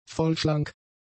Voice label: "vollschlank", German; adjectives